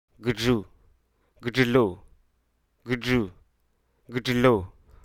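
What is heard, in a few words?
Hruso Aka; cling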